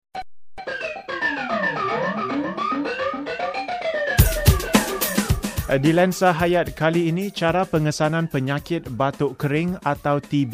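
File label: Malay Male voice over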